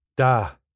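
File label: "da", Scottish English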